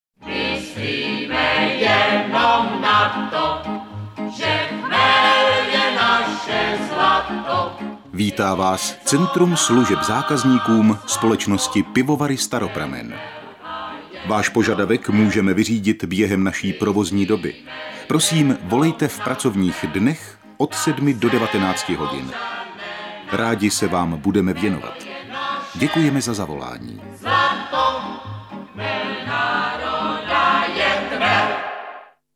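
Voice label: Male Czech IVR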